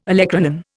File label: "olecranon", Indian